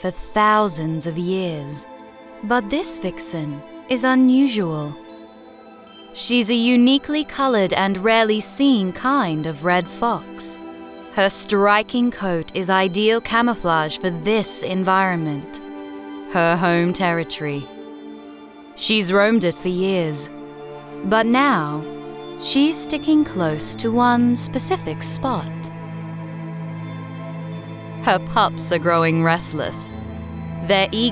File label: Narrative